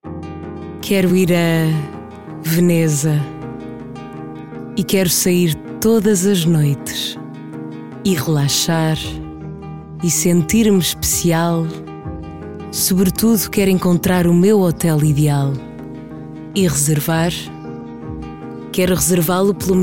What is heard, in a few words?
SPOT